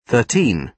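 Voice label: "thirteen", British English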